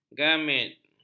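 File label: "gamet", Malay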